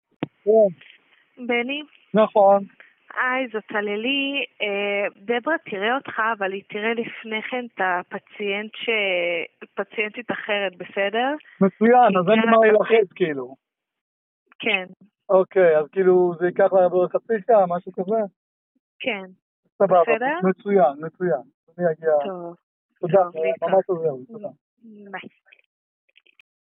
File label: call recording